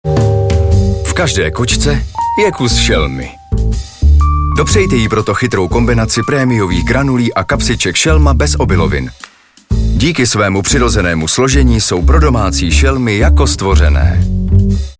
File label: tv voiceover